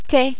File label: "t", french